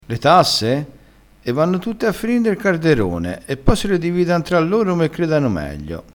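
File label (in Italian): "carderone", dialettale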